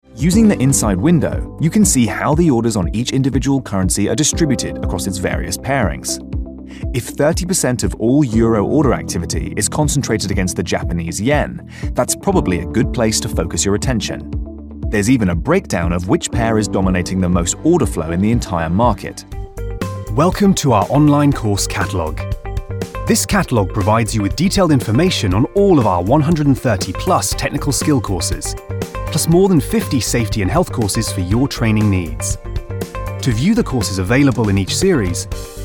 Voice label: E-Learning

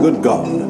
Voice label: "Good God", "おや"

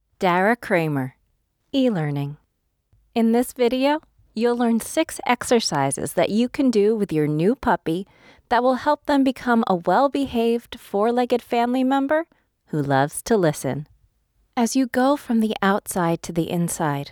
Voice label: eLearning

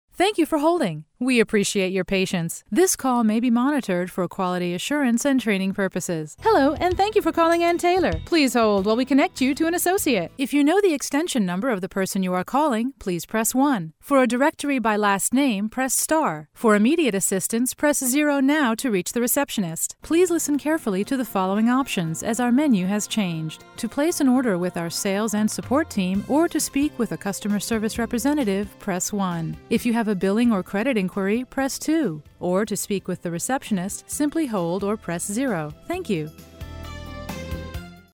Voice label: English IVR

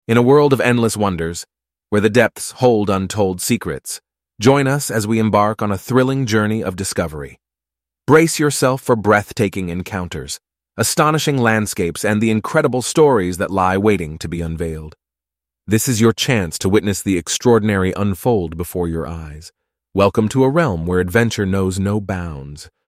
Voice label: Male

